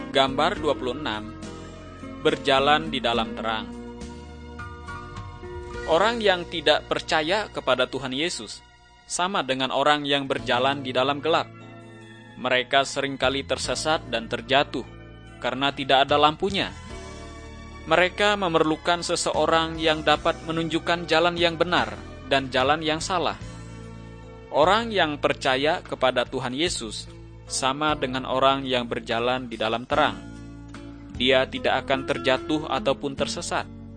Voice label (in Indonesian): kabar baik good news bahasa indonesia